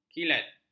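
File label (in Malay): "kilat", Malay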